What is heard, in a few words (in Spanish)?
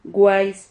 "guais", Silabas